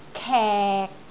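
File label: "kek6", Cantonese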